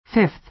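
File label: "fifth", American English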